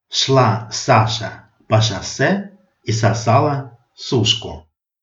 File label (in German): russisch; Sprachkalender Russisch 2023 März 09